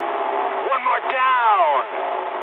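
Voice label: English player killed enemy mood high; War Thunder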